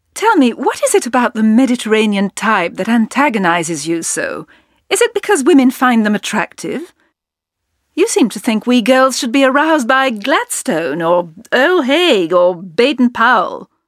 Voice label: ENG CHA COMEDY